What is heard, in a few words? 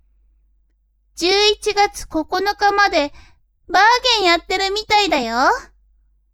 woman